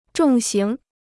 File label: "重型", Chinese